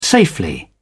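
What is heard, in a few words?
"safely", English, British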